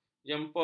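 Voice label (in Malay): "jemput", Malay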